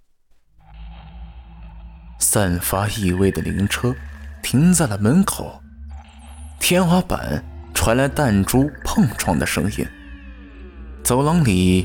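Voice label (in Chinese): 有声书悬疑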